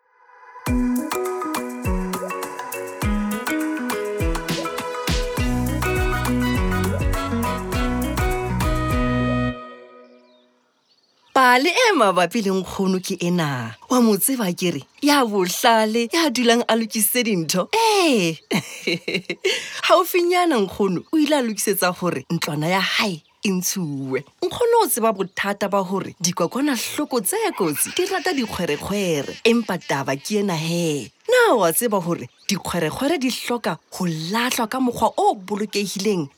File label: Users Disposal Sesotho; Sanitation